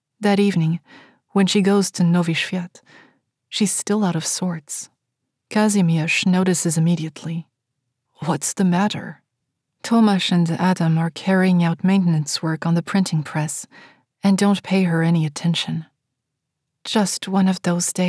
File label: polish accent; a long way from warsaw